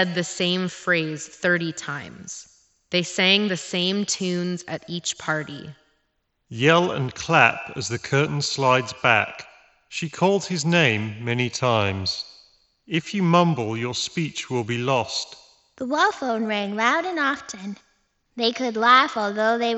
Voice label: reverb; SteelSeries Arctis Nova 3X